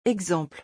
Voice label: "exemple", French